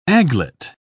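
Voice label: "aiglet", British English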